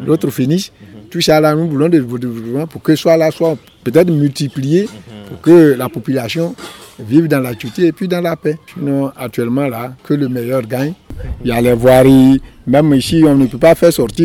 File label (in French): vox pop espoirs et attentes des electeurs de bongouanou; politique